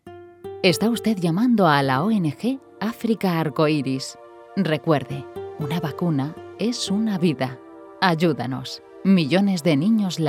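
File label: Female Spanish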